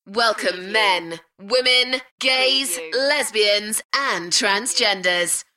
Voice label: UK female, Welcome men women lgbt; beanstalk audio